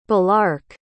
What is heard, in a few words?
"balark", pronounce